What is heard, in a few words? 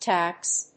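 "taps", English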